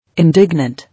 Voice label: "Indignant", English

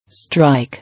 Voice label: "Strike", English

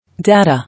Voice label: "Data", English

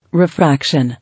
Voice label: "Refraction", English

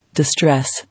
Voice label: "Distress", English

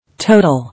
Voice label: "Total", English

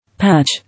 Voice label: "Patch", English

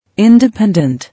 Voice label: "Independent", English

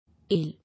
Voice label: "Ail", English

